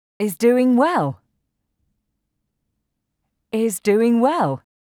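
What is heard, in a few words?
(Name) is doing well, English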